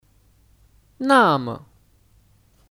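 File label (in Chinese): "那么", Mandarin Chinese; Nàme ： Begitu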